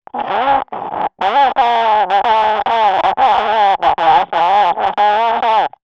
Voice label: emergency radio police garbled message; sound effects one

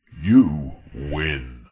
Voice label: multimedia male voice processed says you win

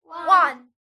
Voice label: human children x5 under 10 english say "one"; zapsplat

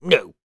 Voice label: human man says "no" stubbornly

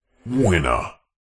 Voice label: multimedia male voice processed says winner

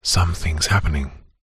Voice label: human male says somthings happening

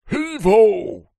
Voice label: male voice pirate heave ho; human; zapsplat

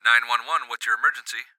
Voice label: Police Dispatch; Blastwave FX

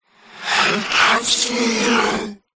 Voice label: horror voice ghostly says i can see you

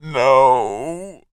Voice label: voice male in peril says "no"; human

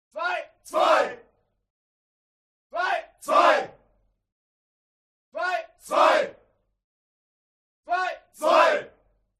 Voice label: HUMAN CROWD Military Shout German "Two"; smartsound

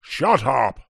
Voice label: human old grumpy man english says angrily shut up; zapsplat

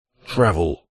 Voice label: radio prod male voice says travel processed

